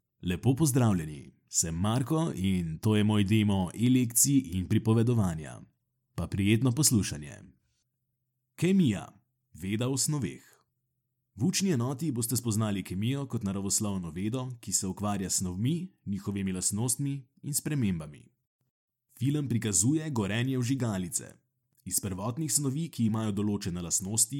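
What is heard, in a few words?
SL Narrative, Slovenian; GoLocalise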